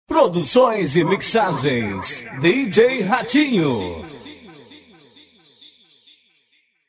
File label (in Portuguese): vinheta